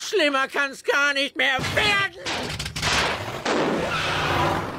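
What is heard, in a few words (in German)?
"schlimmer", German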